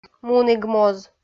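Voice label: "мунігмоз", Komi, female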